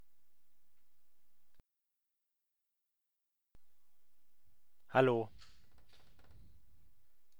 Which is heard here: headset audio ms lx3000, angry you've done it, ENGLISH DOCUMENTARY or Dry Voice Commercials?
headset audio ms lx3000